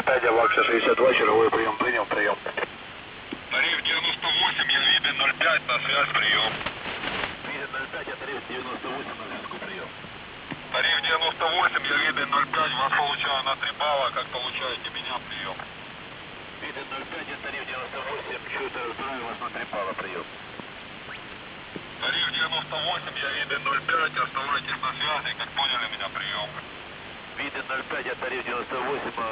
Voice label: ukrainskaa seti proverka sva; usb

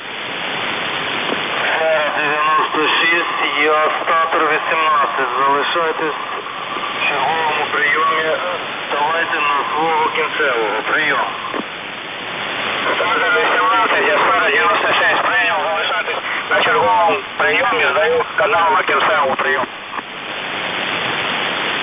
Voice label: f-51925 khz n-u p-seti ukraini

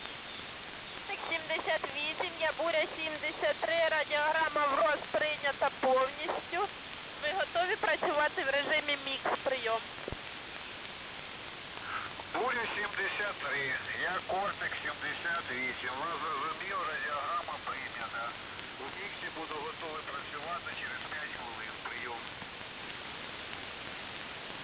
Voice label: 6340 khz usb mcs ukraini

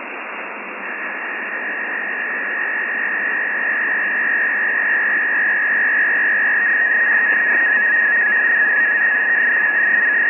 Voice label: castota 5560 kgc usb n-u kazahskaa seti

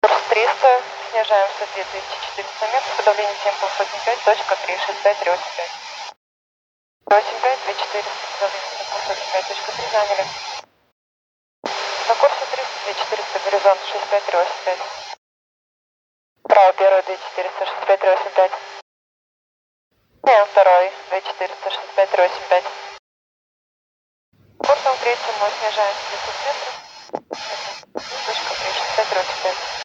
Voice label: zenskij golos

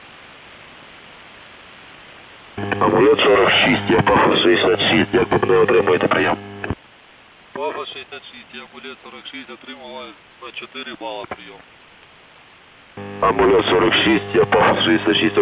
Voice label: proverka svazi na ukrainskom

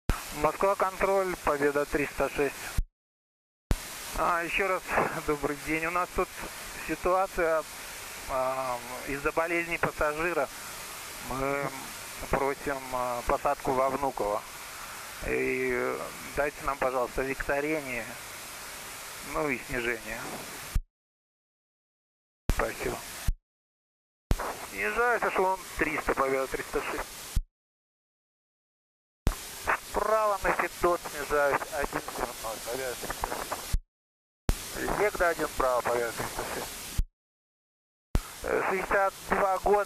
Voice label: moskva kontroli 128800mhz

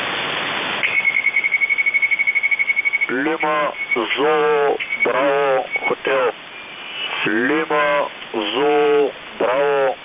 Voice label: 6312 khz bolgari

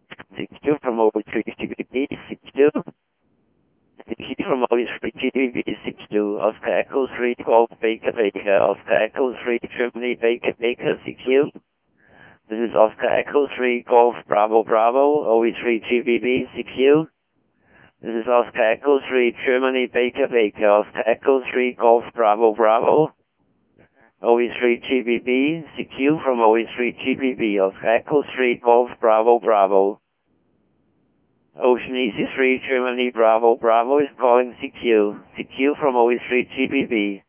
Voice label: fdmdv dekodirovannaa reci

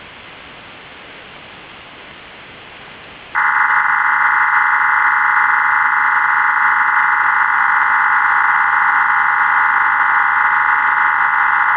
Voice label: fdmdv kodirovannaa reci

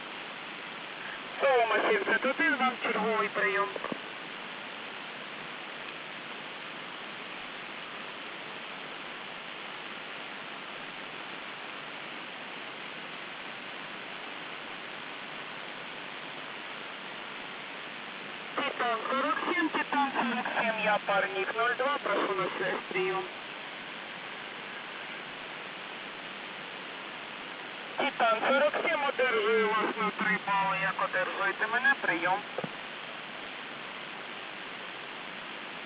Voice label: proverka svazi na ukrainskom; nato

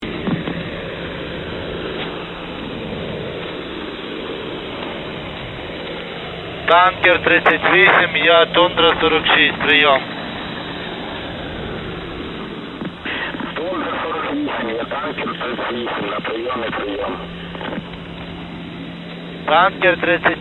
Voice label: radiogramma na ukrainskom azike

